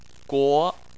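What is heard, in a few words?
"guo", Chinese, second tone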